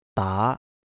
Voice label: "daa2", Cantonese

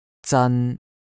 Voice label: "zan1" Cantonese

